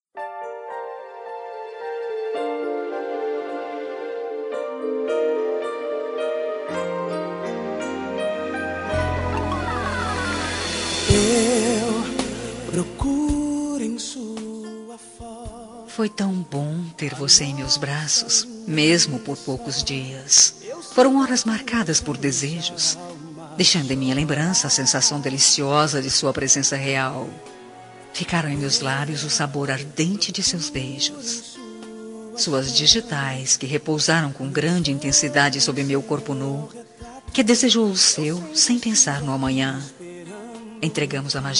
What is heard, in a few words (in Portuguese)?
TELEMENSAGEM AMOR PROIBIDO VOZ DE MULHER